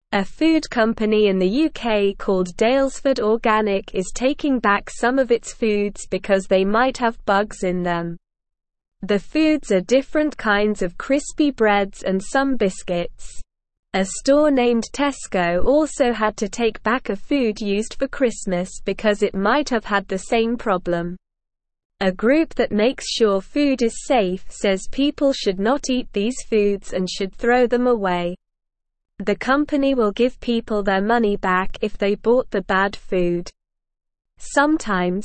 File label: English SLOW Reading; Bugs Found in Food Daylesford Organic and Tesco Tak; English Newsroom